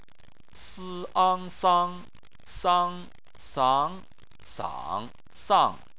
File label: "sang", chinese